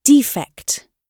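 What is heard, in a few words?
"defect", British English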